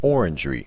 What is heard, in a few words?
orangerie American accent